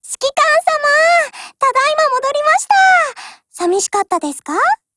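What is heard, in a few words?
OPERATION OVER, Japanese; GF Alarm; M1911Mod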